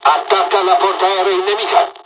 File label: bombing, dialogs italian; midway jpn; War Thunder